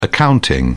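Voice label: "accounting", British English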